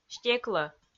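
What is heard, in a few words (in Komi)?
"стеклӧ", Komi, female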